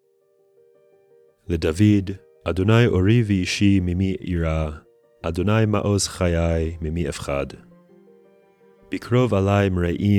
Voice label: poetry and prayer psalm hebrew